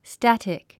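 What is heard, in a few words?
"static", English; 発音 stǽtik スタァティク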